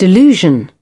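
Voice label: "delusion", British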